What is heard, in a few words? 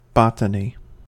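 "botany", US English; pronunciations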